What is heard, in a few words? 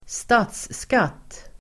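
"statsskatt", Swedish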